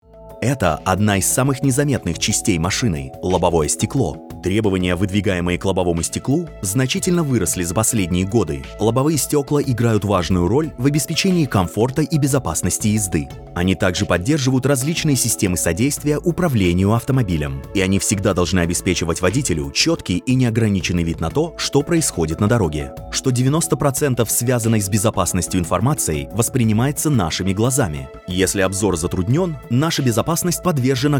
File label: Russian Voice Over; Volkswagen Genuine Windscreen